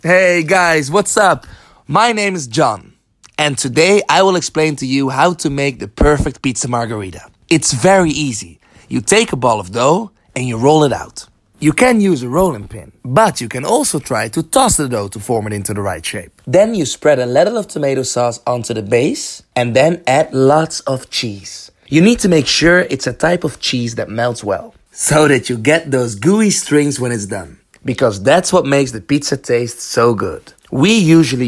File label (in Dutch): engels, voice-over